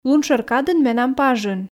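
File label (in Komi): лун шӧр кадын менам пажын, Komi, female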